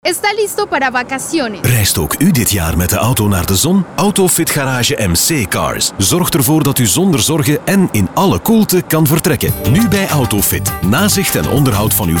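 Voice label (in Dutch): zon aktie airco commercial, radiospot; autofit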